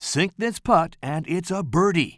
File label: commentator